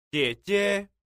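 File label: "jiejie", Mandarin Chinese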